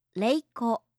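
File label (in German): "reiko", japanische Mädchennamen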